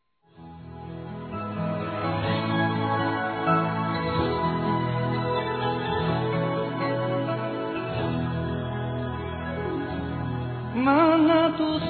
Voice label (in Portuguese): Aniversário Avó Feminino